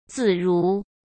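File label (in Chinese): "自如", Mandarin; chinese flashcards; hsk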